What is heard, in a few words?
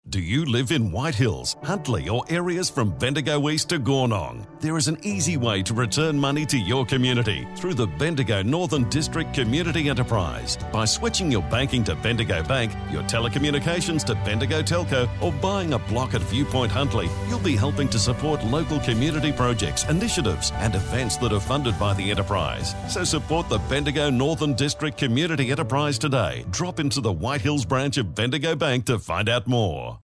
radio ad